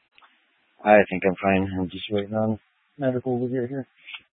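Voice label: Police Dispatch, Scanner audio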